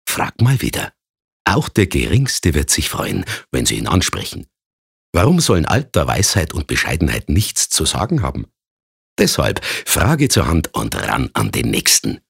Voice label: Dialekt Bayerisch

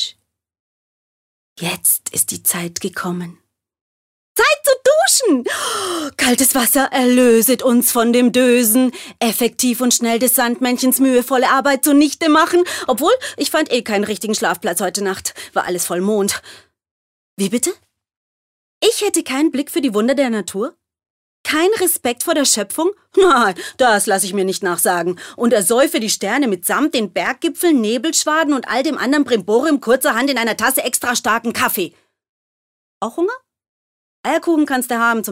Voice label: Schauspiel Variabel

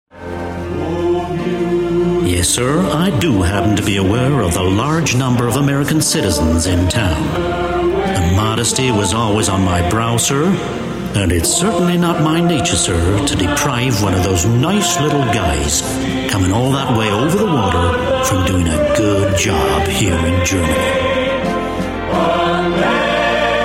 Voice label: Eng US Werbung Freundlich

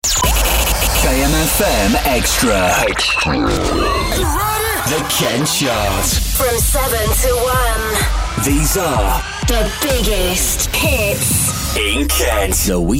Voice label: Eng UK Werbung Dynamisch

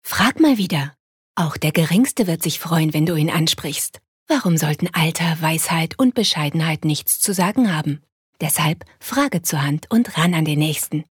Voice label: Werbung Sanft

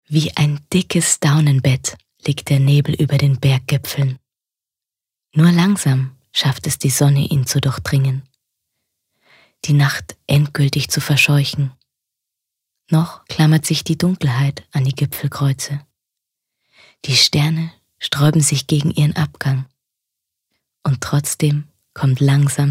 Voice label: Schauspiel Sanft

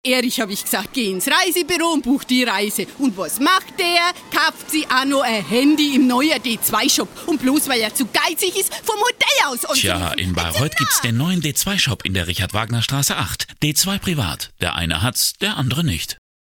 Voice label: Dialekt Fraenkisch

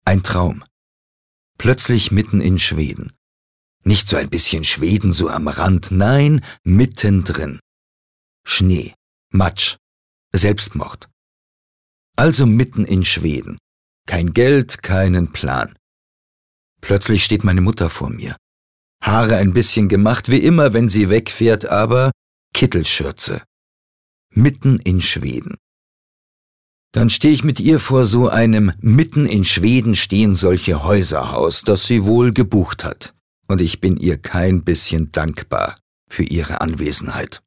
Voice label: Schauspiel Emotional

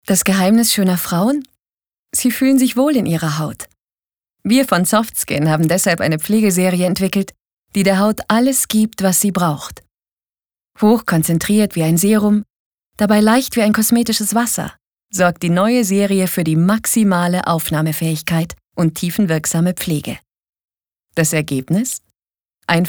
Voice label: Freundlich; Soft Skin

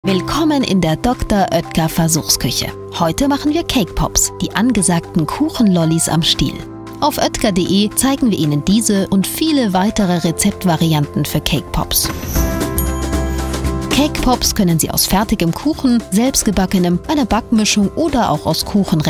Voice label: Fremdtake Freundlich

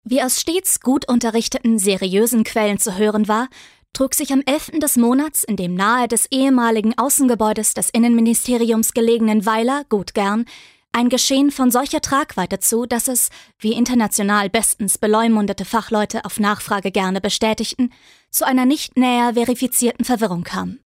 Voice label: Trocken Neutral

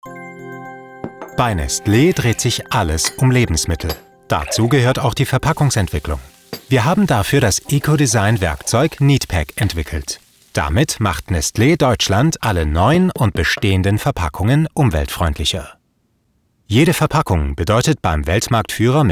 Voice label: Kommentar Freundlich

